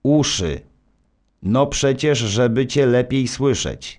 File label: narr; Szkola Koziolka; CyberMycha 2003 October